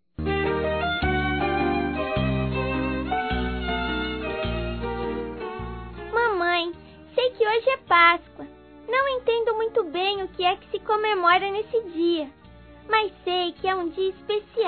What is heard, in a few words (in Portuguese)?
FELIZ PASCOA MÃE (VOZ INFANTIL); Telemensagens